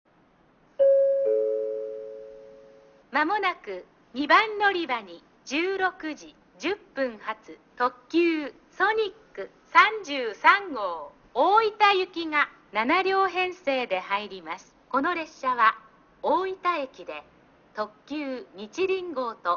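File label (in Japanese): 2番のりば予告放送, 中津駅; ソニック33号 大分行き; ※大分でにちりん号に接続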